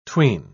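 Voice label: "'tween", British English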